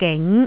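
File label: "ging", Cantonese, tone 2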